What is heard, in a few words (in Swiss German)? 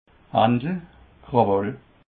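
"handel", Alsatian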